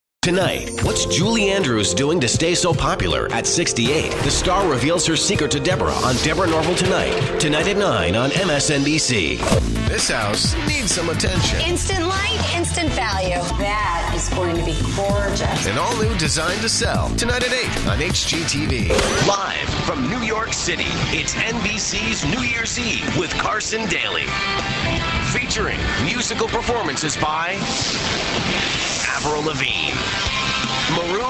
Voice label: promos, men

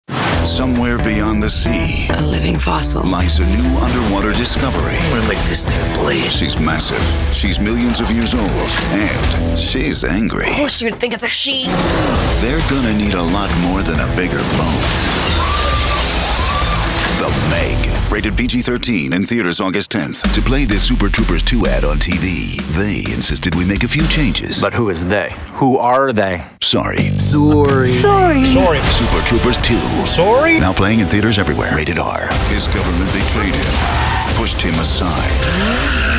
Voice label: trailer men

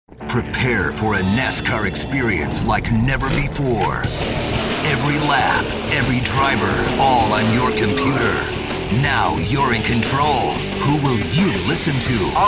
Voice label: network sports, promos men

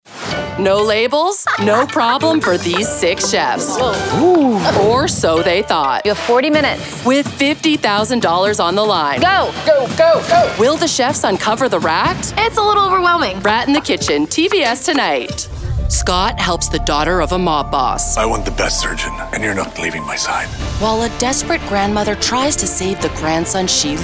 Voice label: promo women

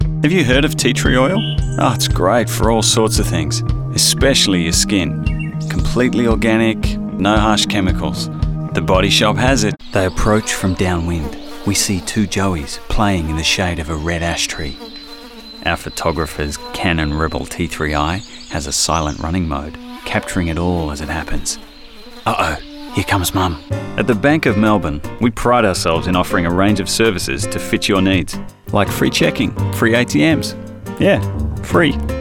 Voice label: languages australian

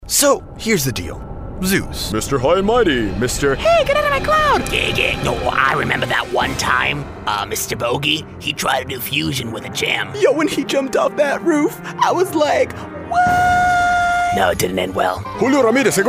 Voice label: animation men, voices men

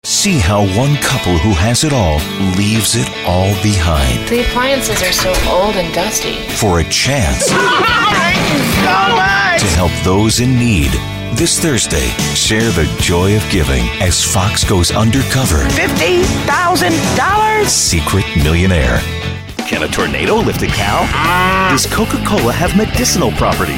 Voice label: promo male entertainment

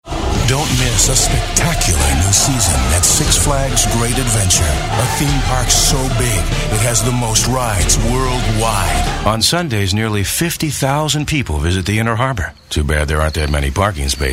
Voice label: commercial, men

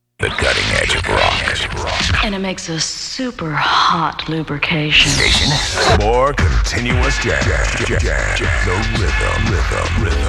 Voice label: Radio; imaging

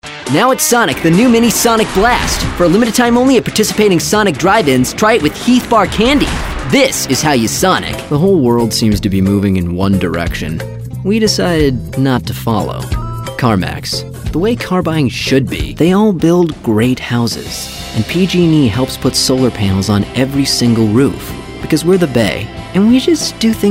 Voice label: commercial male

